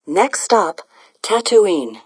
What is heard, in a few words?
droid voice next stop tatooine